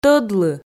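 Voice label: "тӧдлы", Komi, female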